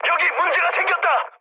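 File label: Korean aircraft damaged mood medium; War Thunder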